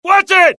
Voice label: alert, dialogue generic US; mohaa